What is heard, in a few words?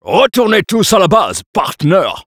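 Spy taunts, French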